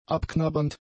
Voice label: "abknabbernd", German; adjectives